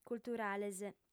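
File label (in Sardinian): "culturales", female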